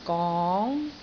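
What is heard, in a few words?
"gong2", Cantonese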